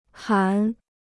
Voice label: "含", Chinese

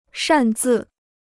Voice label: "擅自", Chinese

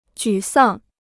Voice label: "沮丧", Chinese

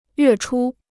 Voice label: "月初", Chinese

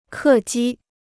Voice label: "客机", Chinese

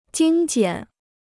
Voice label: "精简", Chinese

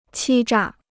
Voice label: "欺诈", Chinese

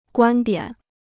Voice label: "观点", Chinese

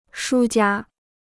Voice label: "输家", Chinese

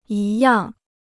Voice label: "一样", Chinese

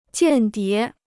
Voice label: "间谍", Chinese

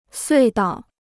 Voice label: "隧道", Chinese

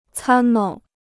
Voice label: "参谋", Chinese

